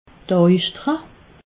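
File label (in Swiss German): "auschtre", Alsatian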